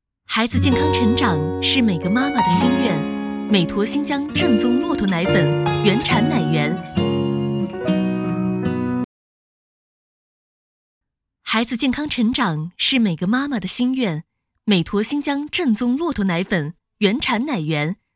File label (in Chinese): 奶粉广告; 新疆骆驼奶粉; 美驼